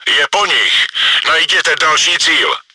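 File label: enemy killed by player, чешской озвучки; World of Tanks; Czech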